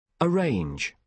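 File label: "arrange", British English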